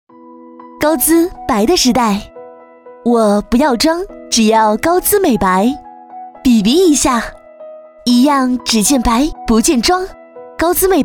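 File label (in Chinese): 广告美白BB霜; 高姿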